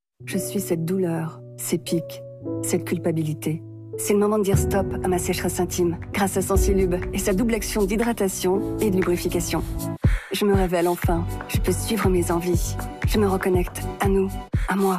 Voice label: spot tv Sensuel – Évocateur; Sensilube

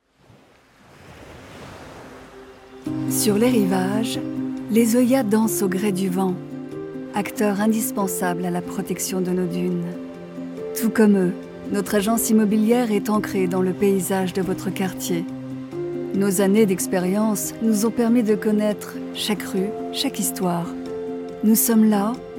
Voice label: institutionnel; Moulleau Immobilier

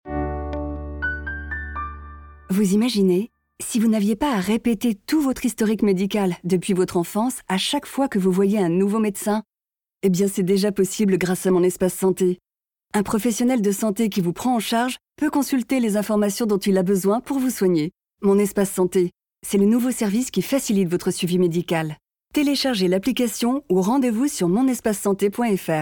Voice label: spot; Mon espace sante; HISTORIQUE MEDICAL

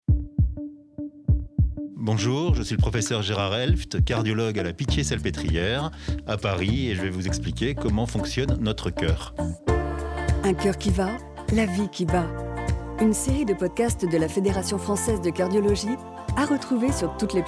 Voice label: promo podcast cardiologie

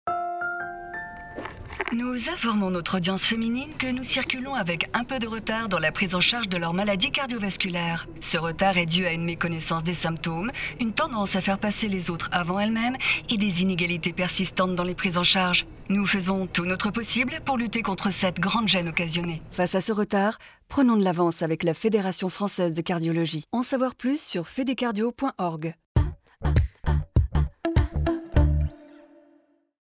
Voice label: spot radio chaleureux; FFC

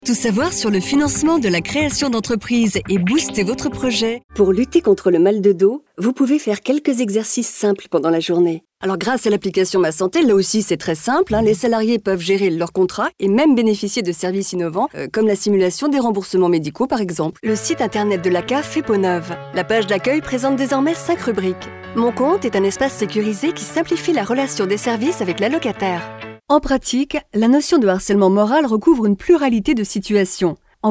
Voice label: institutionnel

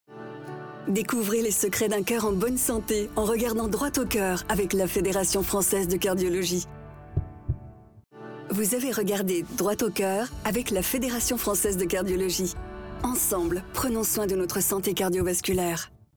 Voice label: chaleureux conseil; Billboard In Out; FFCardiologie

